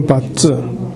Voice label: "battu", minamiyamagata

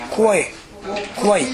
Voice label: "kowae", minamiyamagata; 疲れる。体調不良。疲れて苦しい。

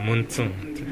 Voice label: "muntun", minamiyamagata

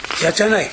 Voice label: "yachanae", minamiyamagata

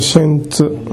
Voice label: "shentu", minamiyamagata

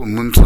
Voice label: "muntun", minamiyamagata

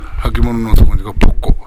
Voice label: "bokko", minamiyamagata

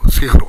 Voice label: "sefuro", minamiyamagata; 家に備え付けてあるお風呂（五右衛門風呂などではなく）。

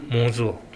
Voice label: "mozo", minamiyamagata

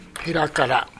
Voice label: "herakara", minamiyamagata

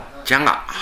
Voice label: "jaga", minamiyamagata

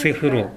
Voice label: "sefuro", minamiyamagata; 家に備え付けてあるお風呂（五右衛門風呂などではなく）。

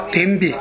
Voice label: "denbi", minamiyamagata

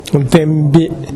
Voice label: "denbi", minamiyamagata

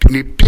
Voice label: "neppi", minamiyamagata

